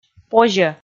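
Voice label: "позьӧ", Komi, female; kpv audio dict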